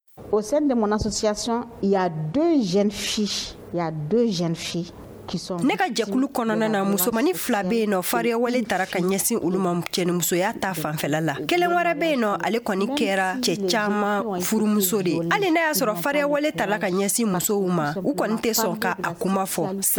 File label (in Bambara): magazine bamanankan